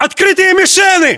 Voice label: threat infantry exposed, battlechatter ru; localized english